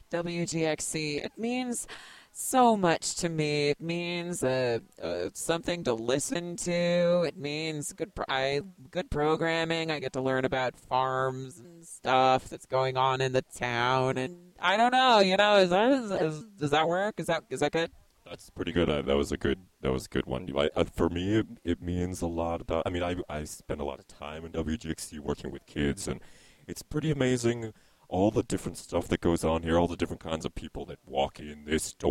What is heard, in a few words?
PSA PLEDGE DRIVE Testimonial voice altered